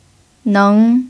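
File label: "neng" second tone, chinese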